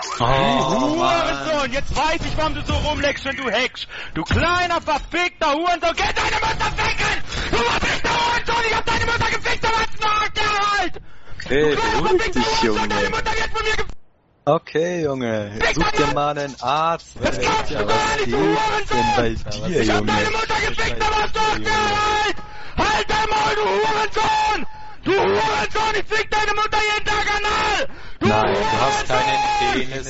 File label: german crashout